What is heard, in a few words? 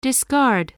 "discard", english spelling